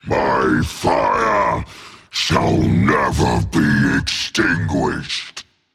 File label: death rage; chaac